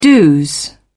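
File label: "dos", British English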